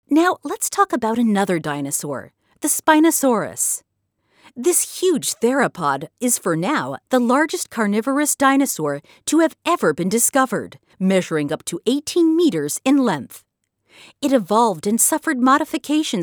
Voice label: dinosaurs audioguide